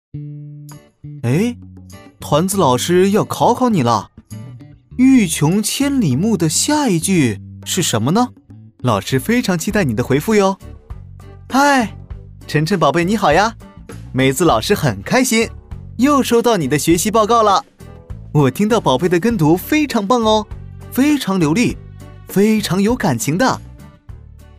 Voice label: 儿童教学点评

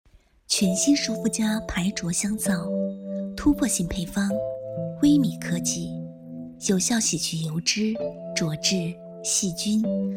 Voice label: 广告 配音

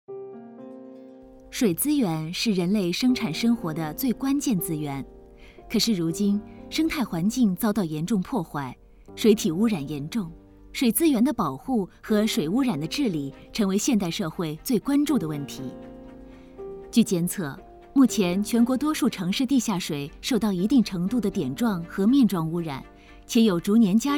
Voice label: 水资源解说

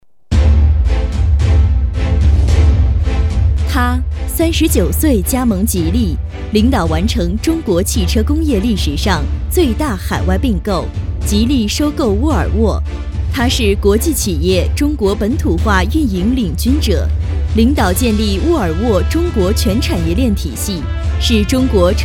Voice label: 女专题颁奖词

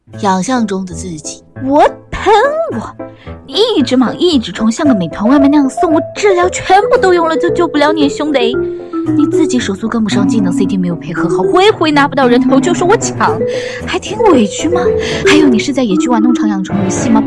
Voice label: 段子配音

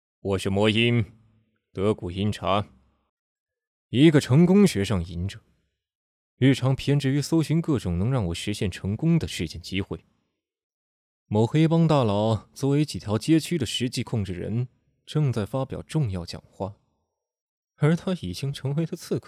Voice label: 有声漫角色

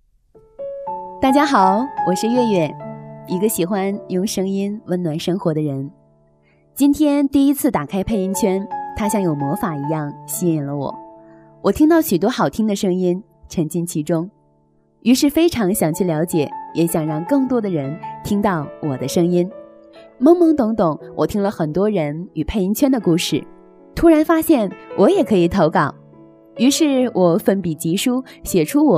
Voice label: 主播

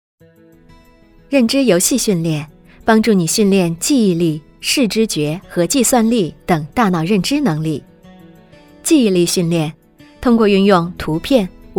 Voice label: 课件脑力训练

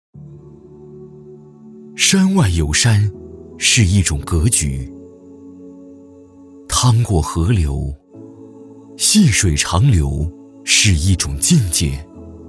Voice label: 品质 广告 走心 质感; 中大国际

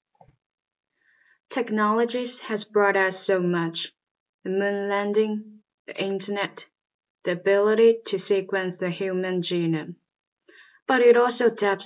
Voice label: 英文, 英语配音 旁白 纪录片等 可柔可飒, 女, 青年（18-35）

